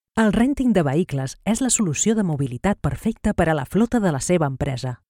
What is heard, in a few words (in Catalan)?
publi corporativa, locutora